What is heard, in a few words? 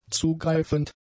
"zugreifend", German; adjectives